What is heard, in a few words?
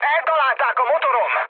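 Hungarian damaged engine mood med, speech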